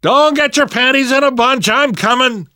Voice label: to the rescue, namvet, survivor voice; Left 4 Dead 2; left4dead2 dlc3

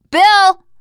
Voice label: name, teen girl; bill

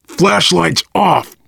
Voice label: kill that light, voice, biker; Left 4 Dead 2; survivor

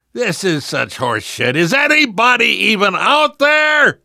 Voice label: call for rescue, survivor voice; namvet; Left 4 Dead 2; left4dead2 dlc2

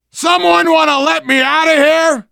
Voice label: call for rescue, survivor voice biker; Left 4 Dead 2; left4dead2 dlc3

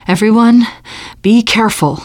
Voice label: warn careful, teen girl; player; survivor; voice

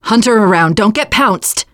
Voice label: heard hunter, teen girl; player; survivor; voice

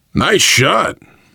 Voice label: nice shot, voice, biker; Left 4 Dead 2; survivor

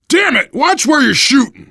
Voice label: friendly fire, voice, biker; Left 4 Dead 2; survivor

